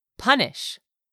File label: "punish", English; 3000 words